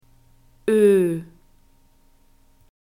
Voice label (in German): "ö", German alphabet